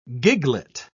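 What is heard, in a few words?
"giglet", British English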